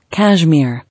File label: "Cashmere", English